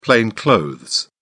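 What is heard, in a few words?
"plain clothes", British English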